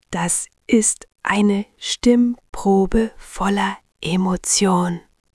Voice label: Unterwasser, stimmen; moods

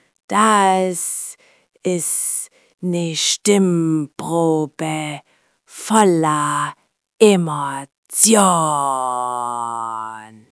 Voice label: Betrunken, stimmen; moods

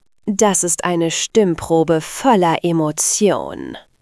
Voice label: Arrogant, Stimmen; moods

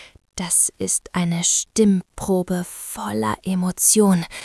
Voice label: Atemlos, Stimmen; moods

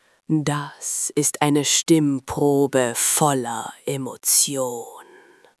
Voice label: Bösewicht, stimmen; moods

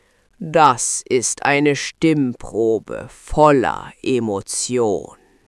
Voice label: Dämon, stimmen; moods

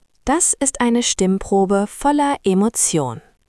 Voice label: Lehrer, stimmen; moods

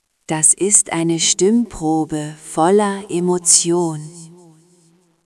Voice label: Echo, Stimmen; moods

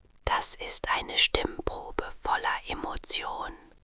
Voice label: Flüstern, stimmen; moods

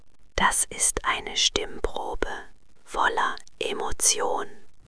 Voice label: ASMR, stimmen; moods

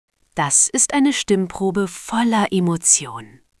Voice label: Erzähler, stimmen; moods

